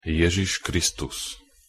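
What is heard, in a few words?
"Ježiš Kristus", Slovak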